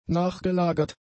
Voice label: "nachgelagert", German; adjectives

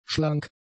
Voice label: "schlank", German; adjectives

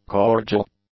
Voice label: "cordial", English; adjectives

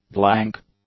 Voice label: "blank", English; adjectives

